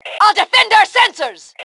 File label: Self Defend Sensors, Female